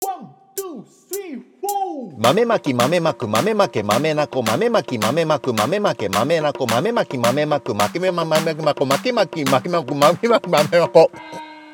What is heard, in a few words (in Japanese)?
早口言葉リズム（中級編）